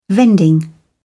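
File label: "vending", British English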